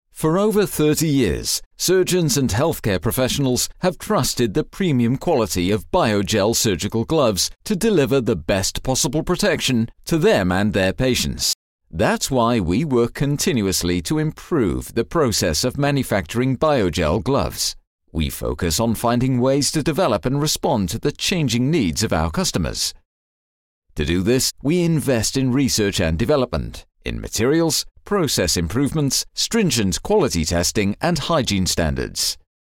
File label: english british medical narration